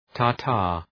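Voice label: "tartar", English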